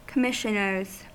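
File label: "commissioners", US English; pronunciations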